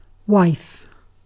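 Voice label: "wife", British English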